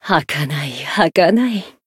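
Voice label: finish, Japanese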